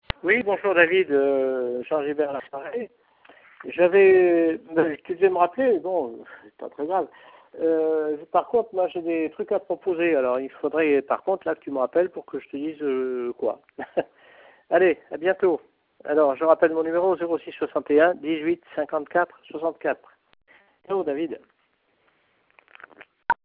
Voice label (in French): message telephoniques